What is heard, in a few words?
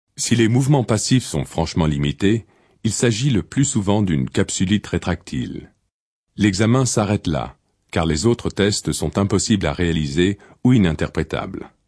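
Voix Off Voice Over, bilingue Américain Français; Medical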